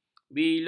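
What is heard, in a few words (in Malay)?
"bilur", Malay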